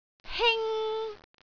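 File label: "hing1", Cantonese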